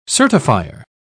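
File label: "certifier", British English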